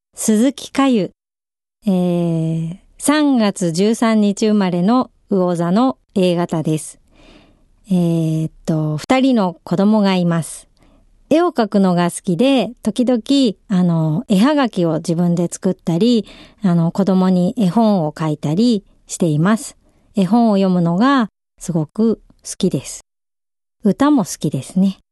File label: freetalk, female voice